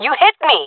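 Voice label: taking fire friendly, female voice; jungle; Counter Strike Model Pack; CS:GO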